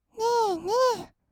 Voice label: ねーねー, kawaii voice; ASMR; 色々なワンショット

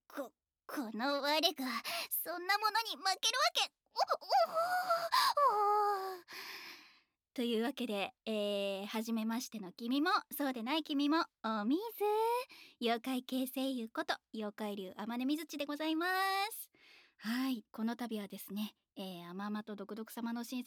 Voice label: フリートーク; ASMR

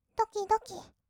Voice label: ドキドキ, kawaii voice; ASMR; 色々なワンショット

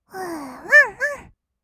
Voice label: わんわん, kawaii voice; ASMR; 色々なワンショット; 1200 kawaii voice!!

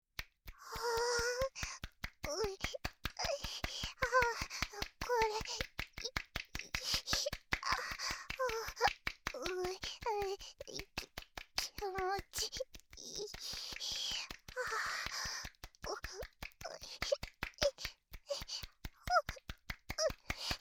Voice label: オナニーボイス; ASMR

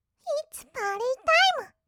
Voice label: "いっつぱーりーたーいむ", kawaii voice; ASMR; 1200 kawaii voice!!; 音ゲー

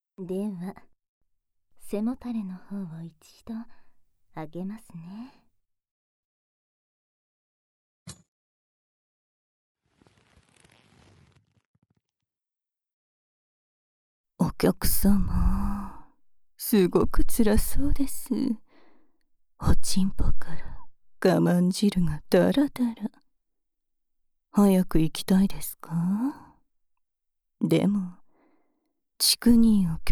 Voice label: 耳舐め囁き妄想耳フェラ＆ねっとりチクニー; SEあり

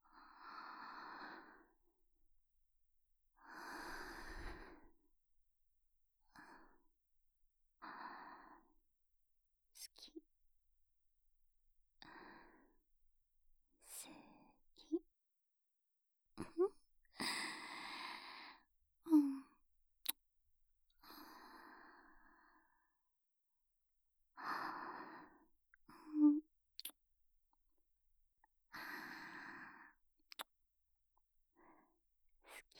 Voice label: 好き好き連呼（静）

